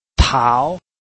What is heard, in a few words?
"tao2", Chinese